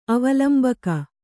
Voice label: "ಅವಲಂಬಕ", Kannada

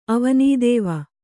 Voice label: "ಅವನೀದೇವ", Kannada

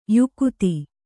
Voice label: "ಯುಕುತಿ", Kannada

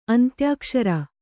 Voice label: "ಅಂತ್ಯಾಕ್ಷರ", Kannada

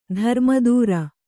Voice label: "ಧರ್ಮದೂರ", Kannada

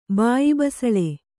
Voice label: "ಬಾಯಿಬಸಳೆ", Kannada

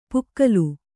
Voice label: "ಪುಕ್ಕಲು", kannada

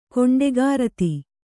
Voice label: "ಕೊಂಡೆಗಾರತಿ", kannada

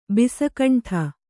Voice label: "ಬಿಸಕಂಠ", Kannada

